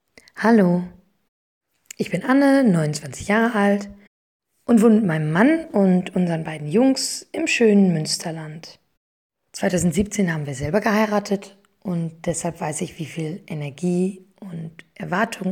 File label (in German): Sprachprobe freie rednerin; Muenster